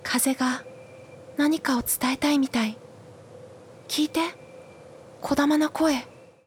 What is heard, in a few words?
foreigner; ささやく女性（日本語）